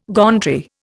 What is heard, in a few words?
"gauntry", Indian